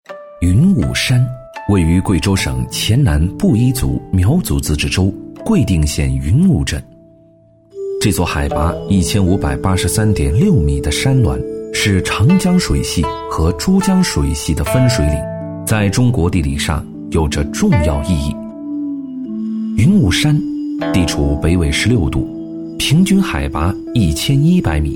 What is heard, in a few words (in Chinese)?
男 专题配音 磁性 大气; 质感大气; 大气浑厚